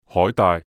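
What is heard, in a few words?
"海帶" Cantonese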